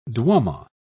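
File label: "duomo", British English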